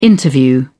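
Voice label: "interview", British English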